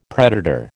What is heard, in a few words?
"predator", American